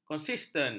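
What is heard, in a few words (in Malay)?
"konsisten", Malay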